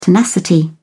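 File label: "tenacity", British English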